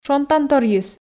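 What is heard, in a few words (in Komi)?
"шонтанторйыс", Komi, female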